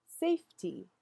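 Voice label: "Safety", English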